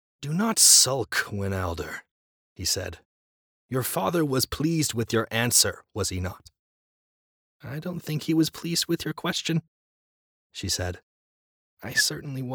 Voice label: Fantasy, masculine voices